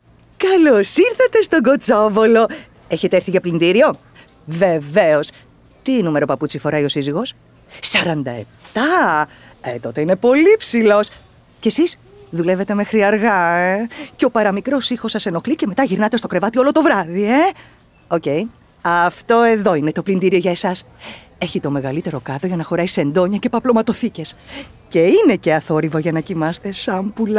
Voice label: Spot, greek female voice; Kotsovolos Electric Store Demo